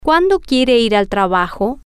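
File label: when does he want to go to work, Spanish, female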